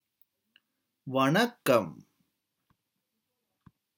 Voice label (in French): lve tamoul bonjour traduction tamoul